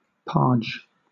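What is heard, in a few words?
"parge", British English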